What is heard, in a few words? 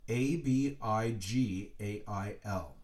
Abigail, letters being spelled out